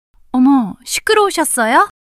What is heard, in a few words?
fluster, monologue